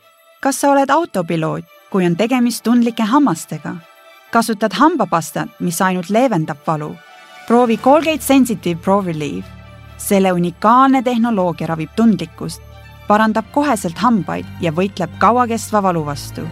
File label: Estonian